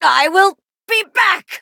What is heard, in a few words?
hit en